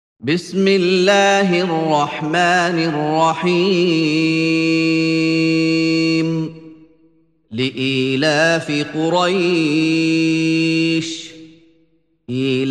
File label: SURAH QURAISH ONLY ARABIC; 106; QARI MUHAMMAD AYUB